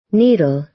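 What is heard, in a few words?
"needle", American English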